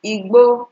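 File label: "igbo" Nigerian Pidgin, female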